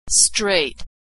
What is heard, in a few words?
"straight", pronunciation